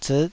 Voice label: "ziit5", Taiwanese syllable

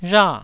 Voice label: "rha4", Taiwanese

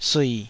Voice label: "sui3", Taiwanese

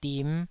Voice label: "dim5", taiwanese syllable

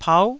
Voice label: "pau9", Taiwanese

